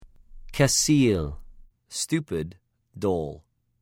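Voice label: "כְּסִיל", Hebrew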